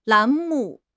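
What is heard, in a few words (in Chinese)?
"栏目", Chinese; HSK6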